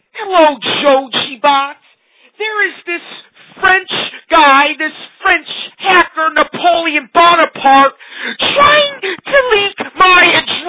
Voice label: Voicemail